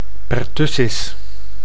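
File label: "pertussis", Dutch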